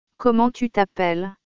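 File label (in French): "Comment tu t appelles", French; grammaire; questions pour faire connaissance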